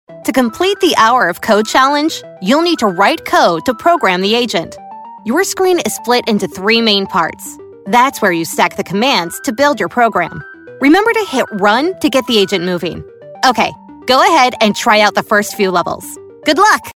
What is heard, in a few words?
Older sister confident, e-learning